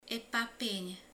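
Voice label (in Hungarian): "liba", Hungarian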